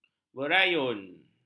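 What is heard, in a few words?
"berayun", Malay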